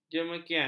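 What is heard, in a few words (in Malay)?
"jemekian", Malay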